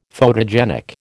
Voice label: "photogenic", American

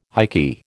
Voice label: "ikky", American

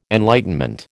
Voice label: "enlightenment", American

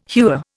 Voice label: "hewer", Indian

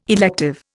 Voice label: "elective", Indian

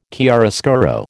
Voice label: "chiaroscuro", American

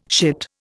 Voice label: "shit", Indian

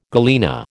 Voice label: "galena", American

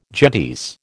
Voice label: "gentes", American

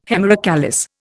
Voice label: "hemerocallis", Indian

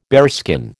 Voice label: "bearskin", American

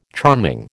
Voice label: "charming", American

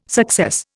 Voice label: "success", Indian

